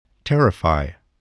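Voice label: "terrify", English word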